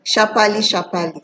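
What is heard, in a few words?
"sharparly sharparly", Nigerian Pidgin, female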